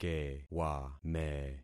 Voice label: "kewame", concat syllables; Affixing experiment